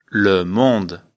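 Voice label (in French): "monde", French; afrikalan; lecture fr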